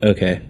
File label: "okay", expletives